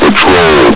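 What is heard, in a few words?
"patrol", male; hl2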